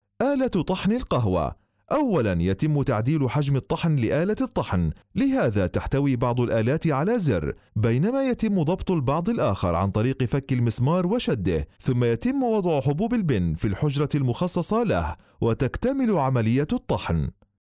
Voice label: arabic